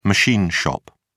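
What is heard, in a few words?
"machine shop", British English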